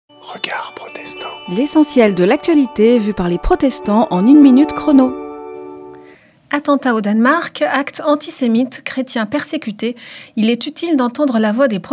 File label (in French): Spot radio; Regards protestants